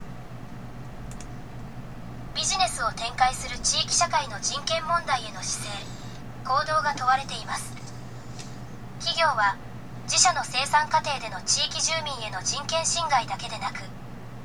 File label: elearning; japan; business and human rights